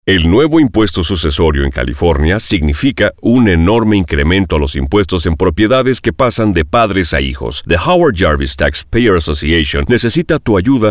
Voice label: Repeal the death tax radio ad in Spanish